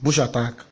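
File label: "bush attack", Nigerian Pidgin, male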